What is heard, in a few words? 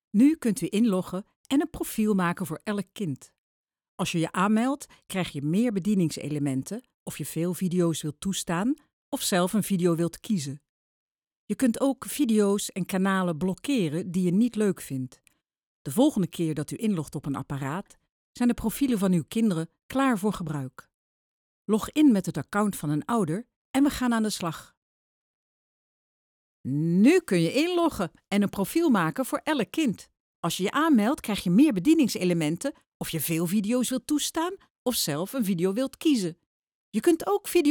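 e-learning, Dutch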